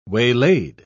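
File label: "waylaid", British English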